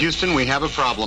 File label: "houston we have problem", male; MALE MISC